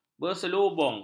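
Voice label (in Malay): "berselubung", Malay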